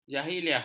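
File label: "jahiliah", Malay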